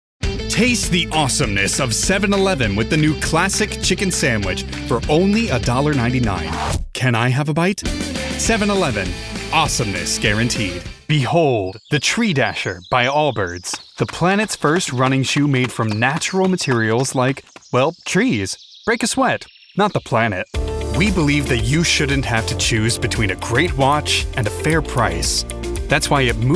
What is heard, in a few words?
Commercial Demo